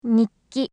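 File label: "nikki", Japanese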